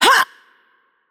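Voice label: Turkish attack heavy voice; bloom minigame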